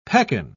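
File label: "pekan", English, British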